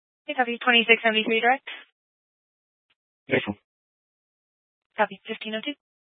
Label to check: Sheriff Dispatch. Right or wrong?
Right